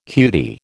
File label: "cutie", American